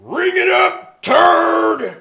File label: Win, Tough Guy Voice; blackjack